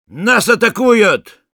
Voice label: Sniper incoming, ru

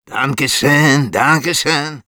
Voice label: Medic positive vocalization, ru

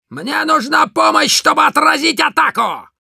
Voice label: Demoman help me defend, ru

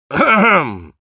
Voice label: Spy battle cry, ru; «Кхм-кхм.»

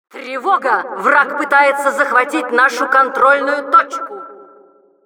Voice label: Announcer control point warning, ru; Тревога! Враг пытается захватить нашу контрольную точку.; Реплики Администратора

